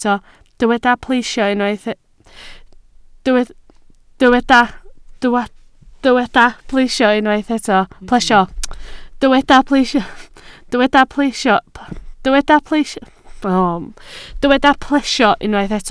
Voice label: "plesio", Welsh